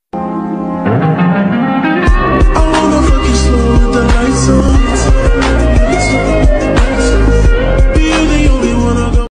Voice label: turkce subliminal

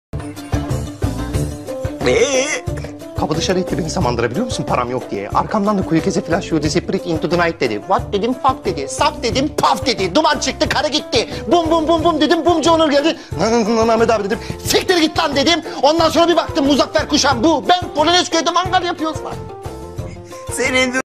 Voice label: komik replikler; ahmet kural

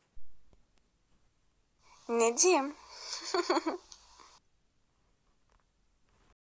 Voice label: kiz sesi

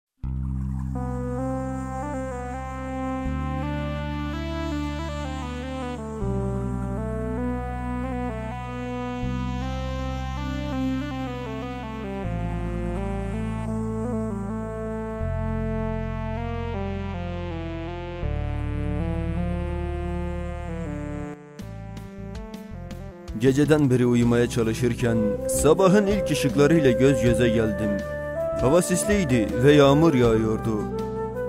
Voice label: duygusal siir fon muzigi

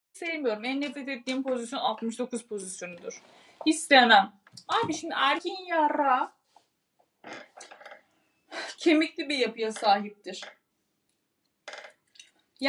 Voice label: seni rahatlatiyorum sevgili asmr erkek arkadas roleplay

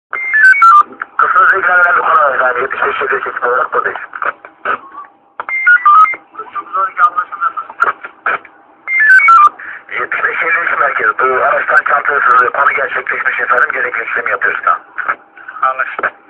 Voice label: Polis Telsizi

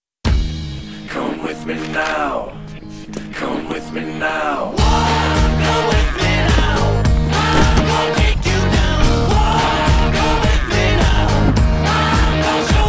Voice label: reklam filmi; yeni renault megane sedan

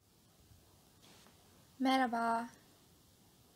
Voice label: kiz sesi merhaba

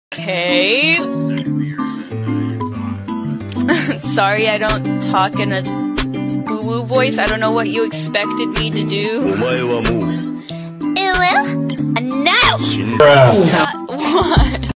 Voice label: anime sesi cikaran kiz uwu

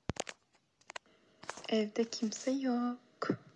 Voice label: super kiz sesi

